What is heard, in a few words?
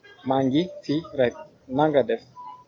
fine, wolof